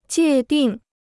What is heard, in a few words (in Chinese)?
"界定", Chinese